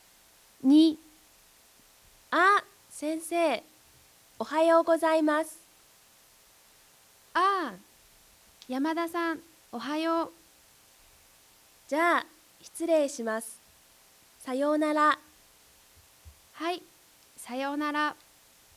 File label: Conversation